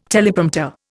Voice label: "Teleprompter", Indian